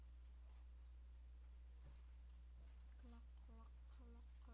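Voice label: "clock", spoken word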